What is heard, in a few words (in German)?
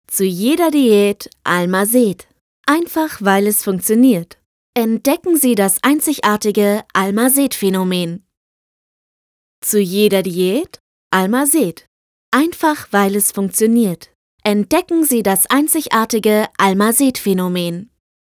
Beauty Werbung